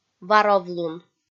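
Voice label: "варовлун", Komi, female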